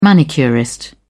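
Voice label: "manicurist", British English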